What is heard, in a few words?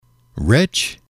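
"rich", English, male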